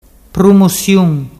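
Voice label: "prumusiun", Ligurian dialect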